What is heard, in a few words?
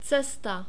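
"cesta", Czech, male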